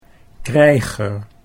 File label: "krijger", Dutch